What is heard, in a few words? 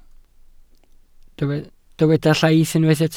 "llaith", Welsh